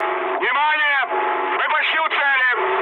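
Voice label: near waypoint, mood med, Russian; War Thunder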